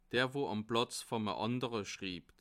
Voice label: "platz", alsace

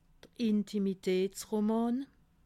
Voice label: "intimitatsroman", alsace

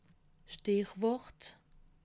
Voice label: "stichwort", alsace

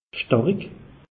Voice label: "starik", alsace; meteo

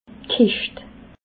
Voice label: "kescht", alsace

